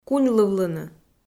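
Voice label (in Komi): "куньлывлыны", Komi-Zyrian, female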